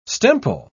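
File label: "stemple", British English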